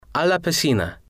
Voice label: "a la piscina", spanish